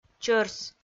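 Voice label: "чӧрс", Komi, female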